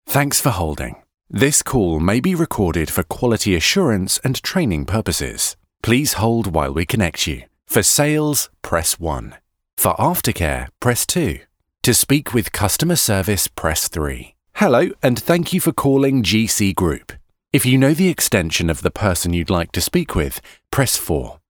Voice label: voicemail